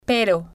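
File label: "pero", Spanish, female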